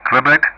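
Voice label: Speech Sound Russian Common Player Alphabet; DCS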